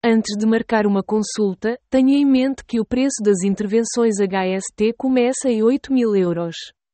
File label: Vocal portuguese